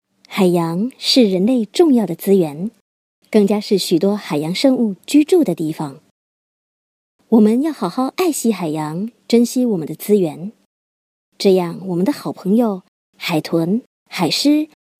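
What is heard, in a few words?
Mandarin VO; Sea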